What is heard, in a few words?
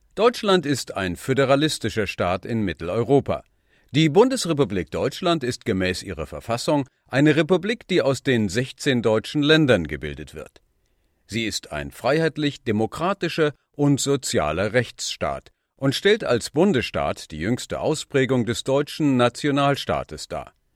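German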